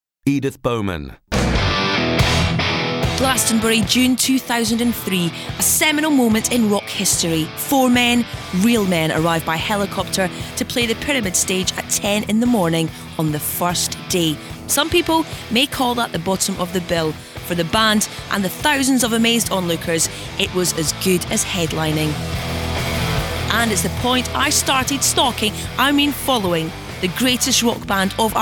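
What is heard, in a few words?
narration documentary; Documentary Showreel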